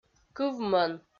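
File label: "кывмӧн" Komi, female; kpv audio dict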